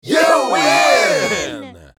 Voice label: Crowd shouts you win; success

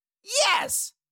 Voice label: "yes", Cartoon little monster voice

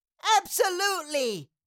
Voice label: Cartoon little child voice absolutely

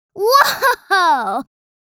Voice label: Woah girl child kid

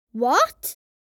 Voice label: "What" boy child kid

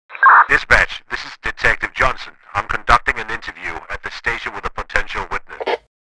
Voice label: Police radio chatter; this is detective Johnson

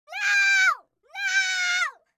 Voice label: Child screams nooo

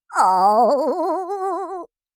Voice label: Minion sadness voice

